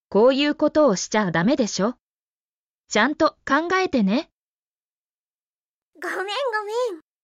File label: Emotion Level: More serious, emphasizing reflection; Japanese; apology phrases; 「こういうことをしちゃダメでしょ、ちゃんと考えてね（koiukotowoshichadamedesho,chantokangaetene）」「ごめんごめん（gomengomen）」